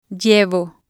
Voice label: "llevo", spanish